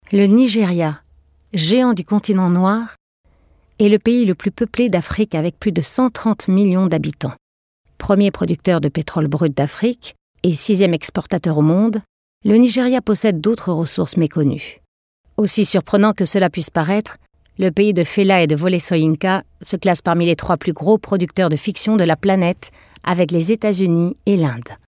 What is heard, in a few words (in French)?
F documentaire; FR; Français